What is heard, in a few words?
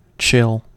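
"chill", American English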